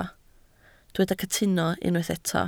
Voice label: "cytuno", Welsh